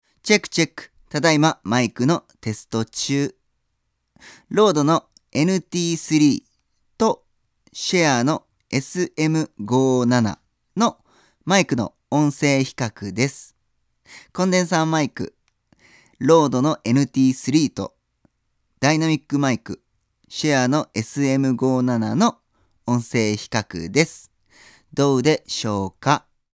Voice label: sm57 voice